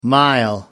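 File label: "mile", British English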